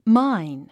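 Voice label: "mine", English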